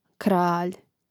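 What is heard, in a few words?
"kralj", Croatian; Mrežnik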